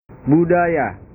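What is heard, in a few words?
"budaya", Indonesian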